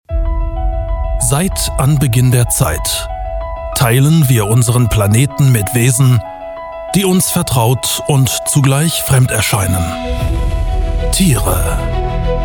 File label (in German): TV Dokumentation Broadcast